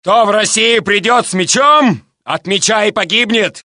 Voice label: Russian; mohaa